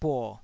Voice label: "buo3", taiwanese

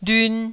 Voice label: "lyun1", Taiwanese

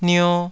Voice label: "nio2", taiwanese

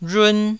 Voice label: "rhun1", taiwanese

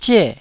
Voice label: "zie4", Taiwanese

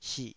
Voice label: "sih3", Taiwanese syllable

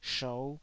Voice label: "shou5", taiwanese Syllables

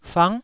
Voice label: "fang9", Taiwanese

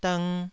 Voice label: "dng2", taiwanese syllable

